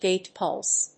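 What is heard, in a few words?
"Gate pulse", English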